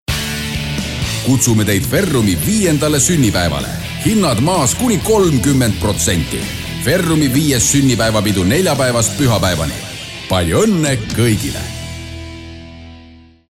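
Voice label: estonian male commercial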